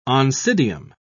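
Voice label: "oncidium", English, British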